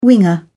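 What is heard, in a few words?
"winger", British English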